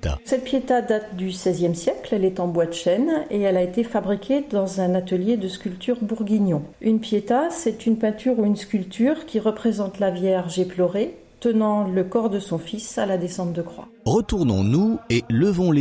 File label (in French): audioguide oratoire carolingien de germigny